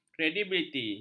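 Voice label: "kredibiliti", Malay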